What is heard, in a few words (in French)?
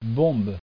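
"bombe" French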